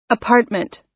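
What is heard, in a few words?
"apartment", American English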